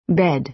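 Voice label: "bed", American English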